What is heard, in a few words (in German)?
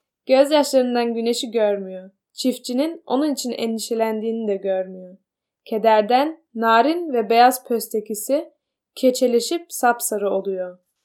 türkisch